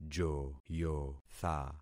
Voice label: "joyotha", concat syllables; Affixing experiment